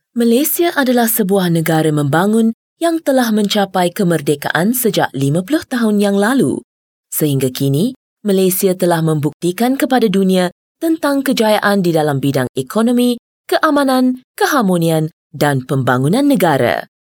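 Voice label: malay female voice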